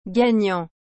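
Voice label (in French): "gagnant", French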